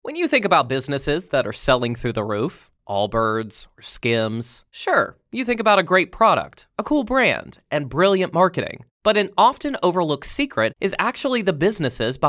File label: camping hiking horror stories relaxing rain sounds; true camping hiking horror stories; deep woods scary stories